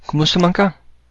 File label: how are you, cebuano